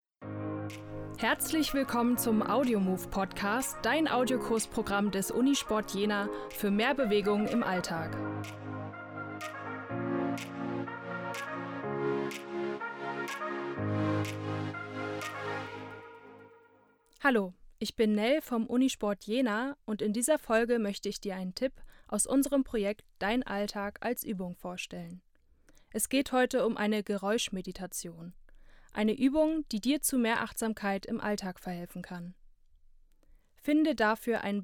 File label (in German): geraeuschmeditation